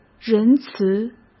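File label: "仁慈", Mandarin Chinese; hsk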